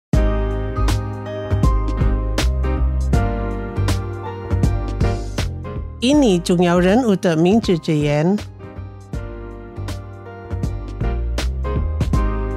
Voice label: Mandarin quote; Kata Bijak; MOH HATTA